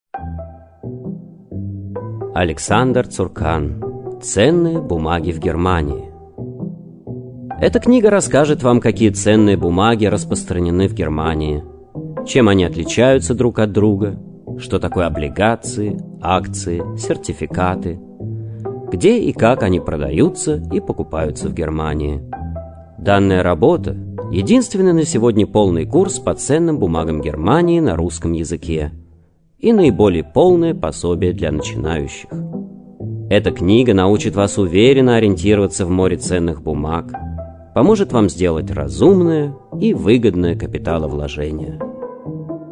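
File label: Russian, male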